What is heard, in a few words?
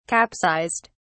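"capsized", English, British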